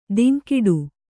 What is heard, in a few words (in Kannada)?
"ಧಿಂಕಿಡು", Kannada